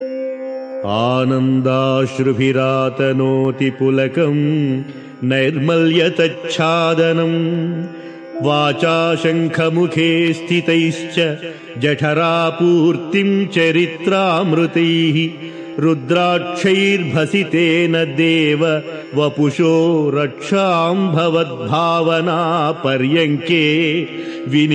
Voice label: Sanskrit; Sri Adi Sankaracharyas Sivananda Lahari; Adi Shankaracharya; श्रीशिवानन्दलहरी ६२